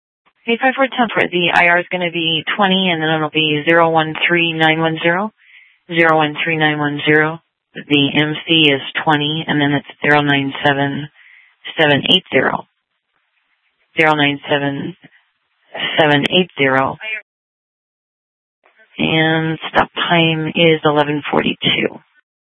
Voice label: Sheriff, scanner P25

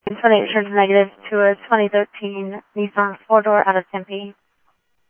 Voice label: PD Police, scanner audio

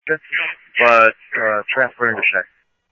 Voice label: Scottsdale PD Scottsdale Police, scanner audio

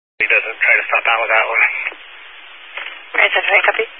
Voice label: Highway Patrol, Phoenix Metro East Area, scanner

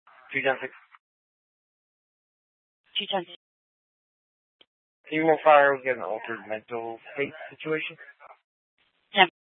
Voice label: Scottsdale PD Sth Dispatch, scanner

